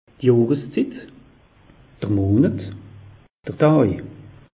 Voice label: "johreszit", alsace; meteo

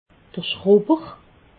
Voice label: "schrubber", alsace

